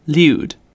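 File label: "lewd", British English; pronunciations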